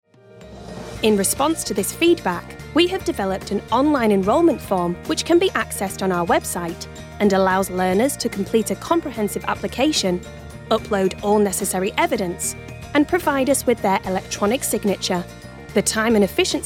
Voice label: Corporate; home studios

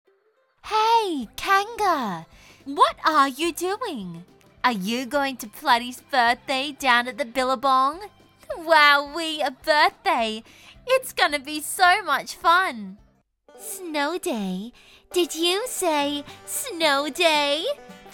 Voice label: ANIMATION VO Aus USA UK Dark, female

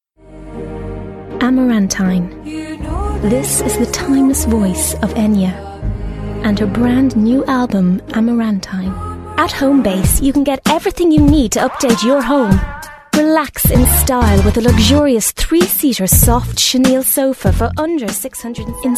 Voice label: Irish Commercials

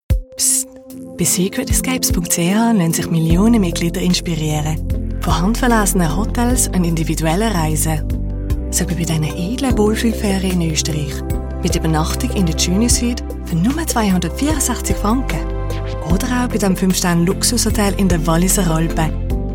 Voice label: Swiss German

